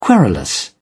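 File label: "querulous", British English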